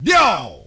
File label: "yo", Voice